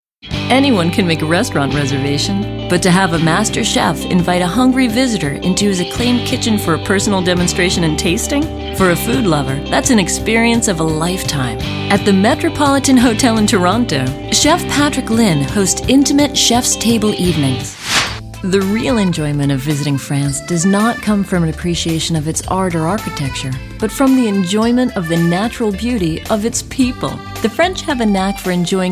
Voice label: Voice Talent Narration